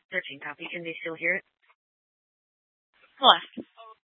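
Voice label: police dispatch, scanner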